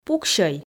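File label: "пуксьӧй" Komi, female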